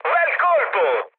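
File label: Italian bomb success mood med, speech; War Thunder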